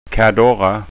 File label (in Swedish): "kadorra", skanska